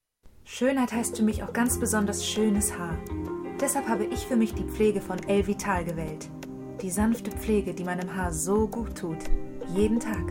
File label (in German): werbung